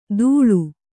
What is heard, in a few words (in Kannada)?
"ಧೂಳು", Kannada